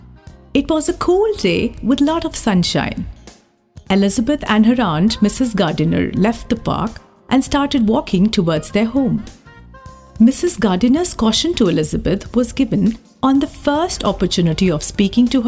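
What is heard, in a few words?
Audiobook English, female voice baritone voice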